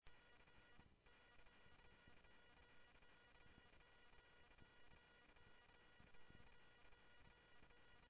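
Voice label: Sheriff West County, scanner audio trunk; MCSO - WEST; ThompsonPeakScot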